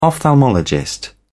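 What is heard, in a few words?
"ophthalmologist", British English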